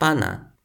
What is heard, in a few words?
"pana", Toki Pona